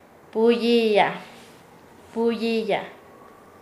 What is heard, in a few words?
Nasa Yuwe; pelear; paez